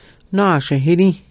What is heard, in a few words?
naashihiri to give someone a name, Hidatsa; Webonary